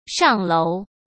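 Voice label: "上楼", Mandarin Chinese; hsk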